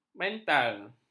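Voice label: "mental", Malay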